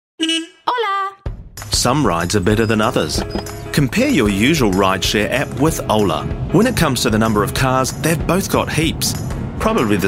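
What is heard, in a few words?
New Zealand English; Ola Cars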